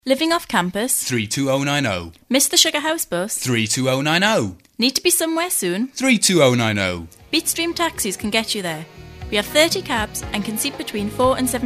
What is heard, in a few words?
ad; bfm jingles ads RSL 1995